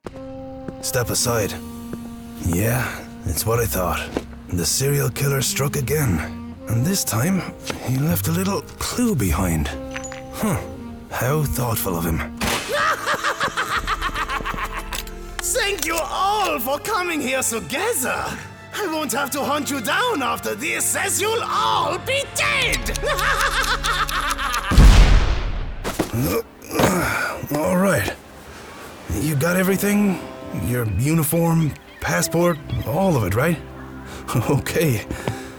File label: Animation